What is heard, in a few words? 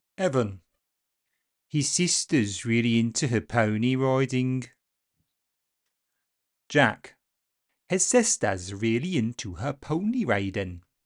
Welsh